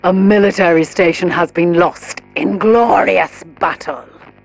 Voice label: advisor notification military station lost, militarist; Stellaris; ethics